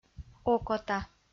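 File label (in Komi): "окота", Komi, female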